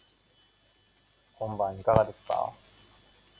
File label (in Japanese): 音感情